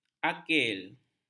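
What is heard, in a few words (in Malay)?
"akil", Malay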